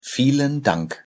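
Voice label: pronunciation vielen dank, German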